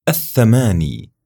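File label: "aththamani", Arabic; numbers; raqm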